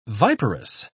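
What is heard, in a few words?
"viperous", English, British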